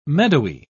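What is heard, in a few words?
"meadowy", British English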